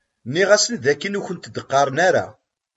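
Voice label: "Nniɣ-asen d akken ur wen-d-qqaṛen ara.", Kabyle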